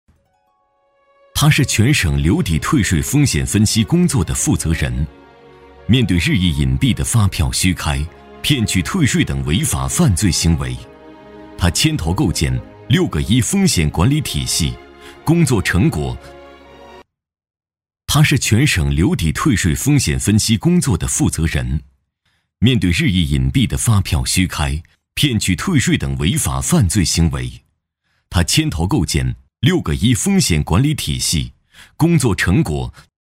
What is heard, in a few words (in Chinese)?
男 人物专题 讲述; 税务工作人